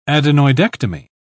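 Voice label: "adenoidectomy", British English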